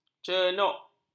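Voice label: "cenok", Malay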